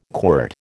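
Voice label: "quirt", American English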